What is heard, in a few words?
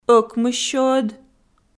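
"ӧкмыссёӧд", Komi, female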